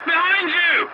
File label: voice message check your six, english; War Thunder